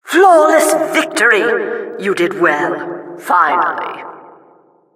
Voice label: announcer flawless victory

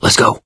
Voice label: emphatic go quiet, mechanic, survivor, voice; source engine; left 4 dead 2

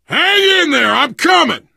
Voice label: to the rescue, survivor voice biker

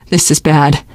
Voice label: reaction negative, teen girl; voice; source engine; left 4 dead 2; survivor

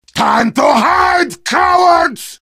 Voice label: heavy taunts; video games; source engine; tf

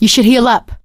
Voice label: suggest health, survivor voice; source engine; left 4 dead 2; producer

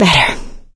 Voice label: pain relieft pills, survivor voice; source engine; left 4 dead 2; producer

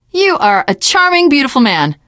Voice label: reaction positive, teen girl; survivor voice

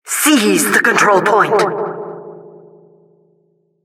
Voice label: announcer cap incite

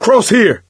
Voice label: misc directional, coach, survivor voice

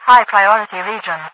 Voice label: high priority region, overwatch radio voice; Source Engine; video game; npc

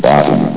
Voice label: "bottom", vox; Source Engine; bshift

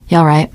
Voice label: yes, teengirl; survivor voice

